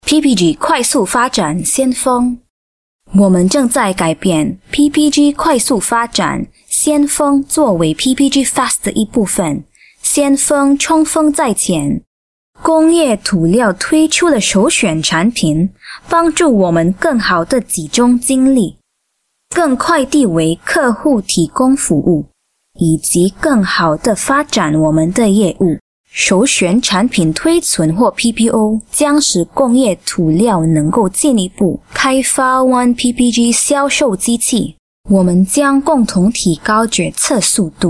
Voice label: chinese voice over female narrator